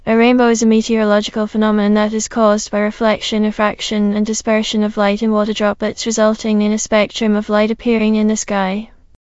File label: speaker, en GB; piper voices; vctk